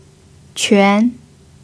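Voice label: "quan" second tone, chinese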